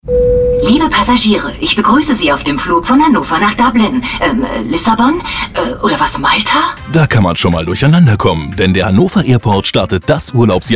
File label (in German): Funkspot; Airport H; Sunexpress